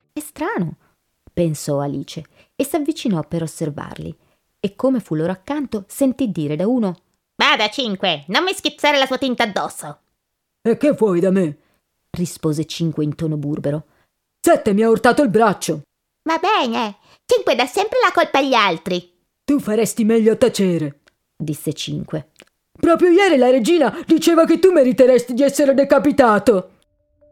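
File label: Italian, Characters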